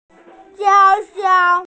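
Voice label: "banana", Mandarin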